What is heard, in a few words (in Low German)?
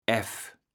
"aeff", kaevels platt